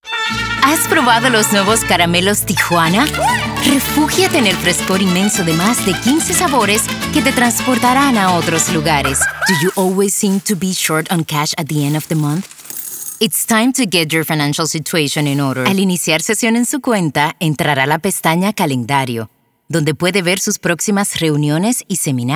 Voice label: Spanish & English